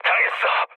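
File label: Korean aircraft destroyed mood low; speech; War Thunder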